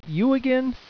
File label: YOU AGAIN, surprised